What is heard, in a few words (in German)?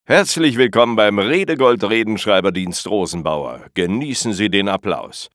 sprechart dynamisch keck